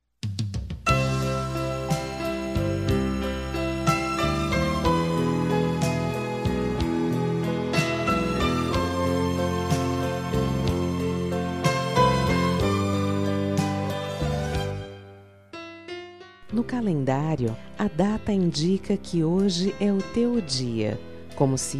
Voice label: Dia das Maes, F

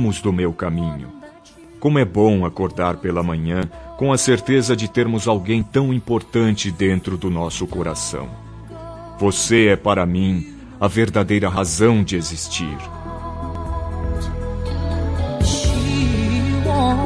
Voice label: Romântica Eu Estou Apaixonado

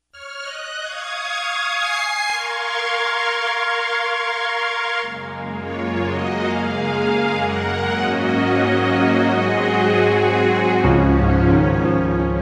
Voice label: Dia das Maes, F

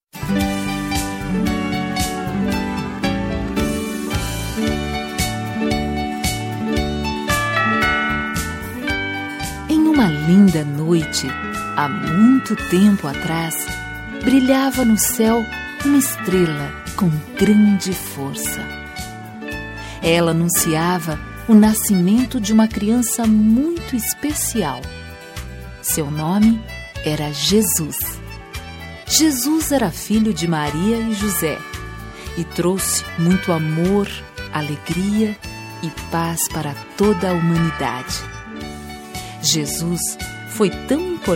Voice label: Feliz Natal Com Conto de Natal pra Crianca F

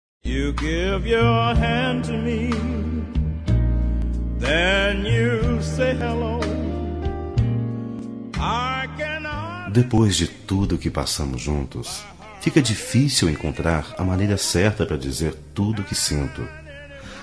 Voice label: Romântica

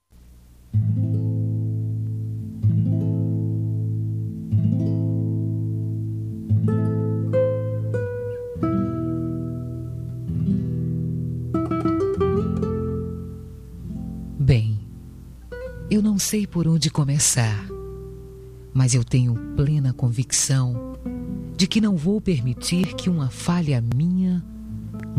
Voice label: Amizade Com Pedido de Perdão, F

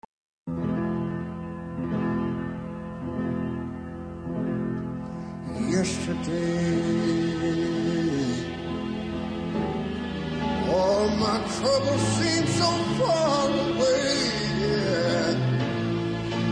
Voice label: Amizade Com Pedido de Desculpas, F

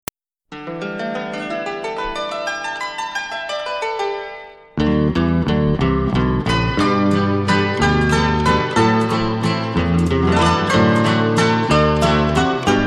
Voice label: Feliz Natal, M Infantil

